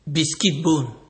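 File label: "biscuit bone", Nigerian Pidgin, male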